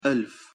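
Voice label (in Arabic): "ألف", Arabic pronunciation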